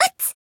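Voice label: Voice damaged Japanese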